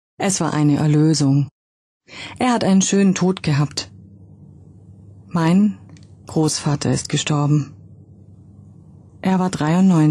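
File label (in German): philosophie höher, Sprecher, Frauen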